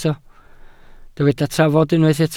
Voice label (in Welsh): "trafod" Welsh